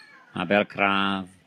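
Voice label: "Abercraf", Welsh; Abercrave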